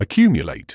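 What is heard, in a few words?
"accumulate", British English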